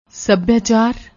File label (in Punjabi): "subhiachar", Punjabi; ਸੱਭਿਆਚਾਰ